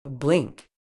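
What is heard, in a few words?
"blink", British English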